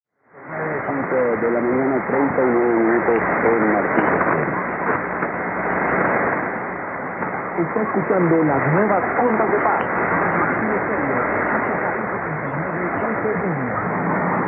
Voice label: 5910.1kHz; Marfil Estereo; コロンビア